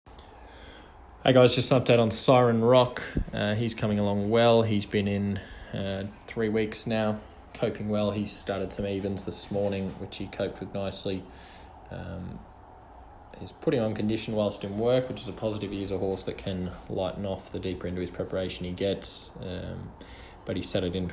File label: voice note, update from trainer; Siren Rock